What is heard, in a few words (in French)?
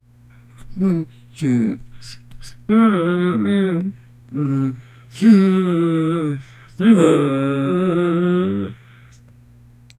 murmures d'un homme faisan; intimate